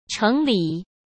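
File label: "城里", Mandarin Chinese; hsk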